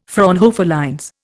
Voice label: "Fraunhofer lines", Indian